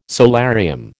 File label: "solarium", American